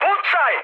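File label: Serbian attack position mood high; speech; War Thunder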